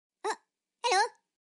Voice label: oh hello message tone cartoon notification; minions